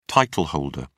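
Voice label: "title holder", British English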